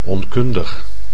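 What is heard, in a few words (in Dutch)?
"onkundig", Dutch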